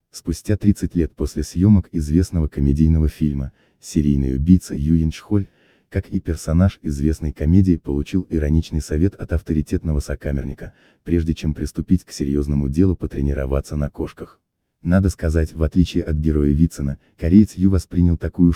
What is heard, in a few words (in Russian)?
озвучка